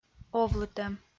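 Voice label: "овлытӧм" Komi-Zyrian, female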